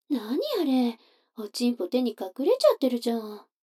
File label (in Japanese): 女の子 台詞